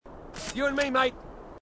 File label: marine, aussie; h2dialogue